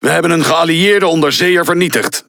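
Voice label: NL Speech Destroy Ally Sub, Dutch; World Of Warships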